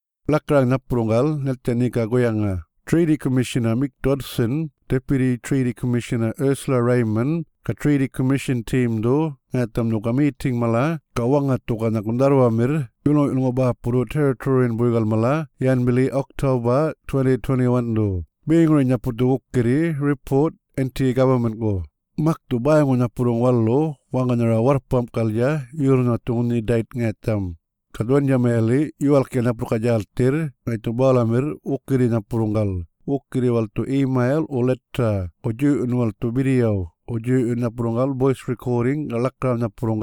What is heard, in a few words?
Yolngu Matha